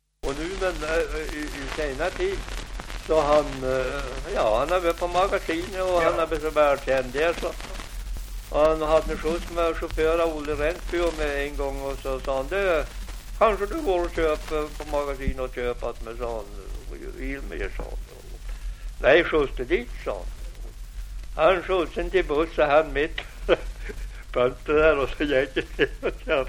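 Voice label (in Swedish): Aspås Jämtland, dialekter; Folkminnen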